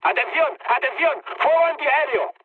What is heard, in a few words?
damaged aa, mood high, speech; War Thunder